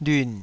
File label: "lyun3", Taiwanese syllable